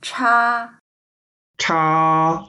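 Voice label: "cha1", Mandarin Chinese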